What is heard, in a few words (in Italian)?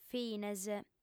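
"fines", lingua sarda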